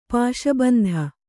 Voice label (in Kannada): "ಪಾಶಬಂಧ", Kannada